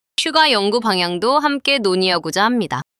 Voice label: neutral